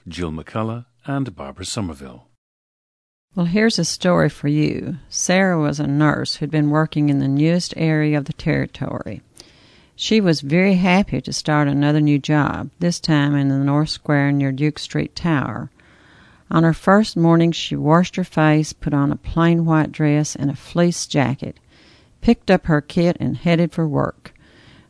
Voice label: kentucky, dialects